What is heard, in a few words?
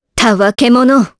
vox, jp; Priscilla; kingsraid